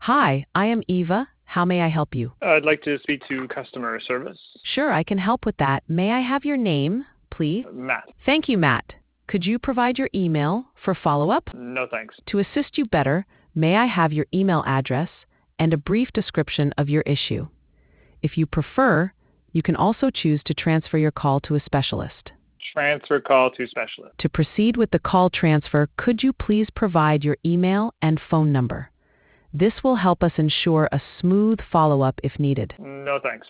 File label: conversation